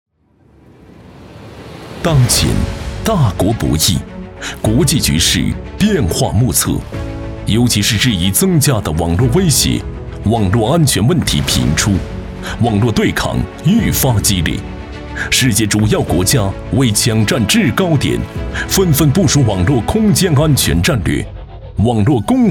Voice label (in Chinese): 男 专题 铿锵有力; 大国博弈